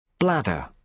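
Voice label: "bladder", British English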